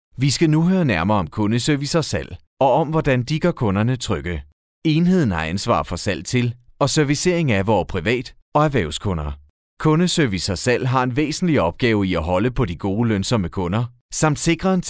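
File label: danish male narration, voice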